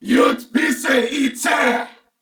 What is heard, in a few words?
Attack, Corpus Venus Male; Warframe; CorpusVenusMaleA